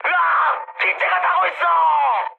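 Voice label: Korean on fire mood high; War Thunder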